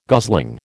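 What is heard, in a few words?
"gosling", American English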